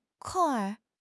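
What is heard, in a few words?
"kong4 r5", Mandarin Chinese; HSK4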